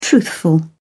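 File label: "truthful", British English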